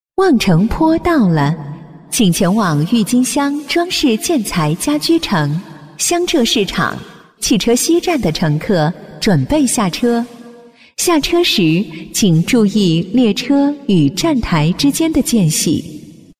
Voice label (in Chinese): 多媒体 广播提示 地铁报站 长沙地铁